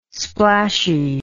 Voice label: "splashy", English